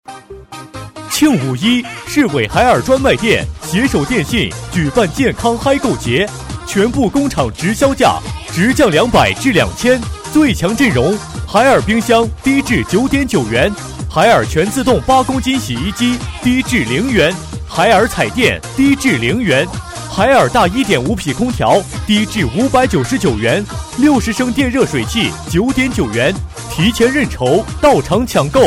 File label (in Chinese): 促销; 海尔